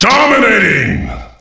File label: dominating, Announcer Voice Lines; Unreal Tournament